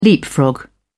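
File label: "leapfrog", English, British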